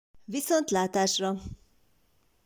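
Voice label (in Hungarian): "Viszontlatasra", Hungarian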